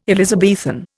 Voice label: "Elizabethan", Indian